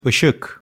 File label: "isik", Turkish